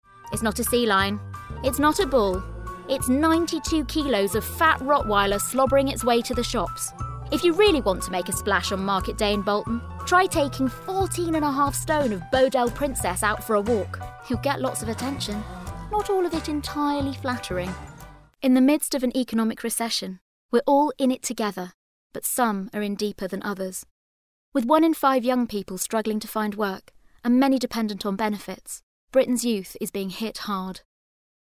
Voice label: Documentary; Vocal Point